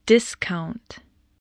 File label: "discount", english spelling